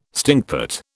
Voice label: "stinkpot", British